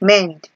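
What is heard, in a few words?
"mend", Nigerian Pidgin, female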